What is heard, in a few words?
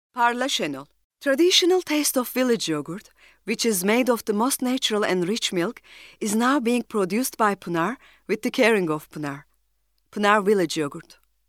ENGLISH WOMAN